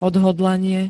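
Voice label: "odhodlanie", Slovak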